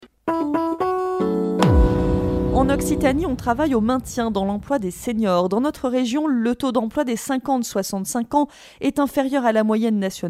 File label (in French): interview